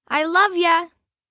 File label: I Love Ya, Endearment, 1 girl, 9 yrs; Talking Sound Effects